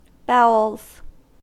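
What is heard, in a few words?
"bowels", US English; pronunciations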